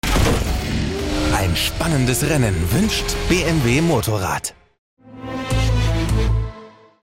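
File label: German Promo; BMW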